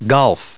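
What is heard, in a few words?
"golf", US English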